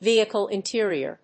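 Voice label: "VEHICLE INTERIOR", English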